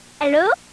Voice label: hello, Hello (Girl); katamari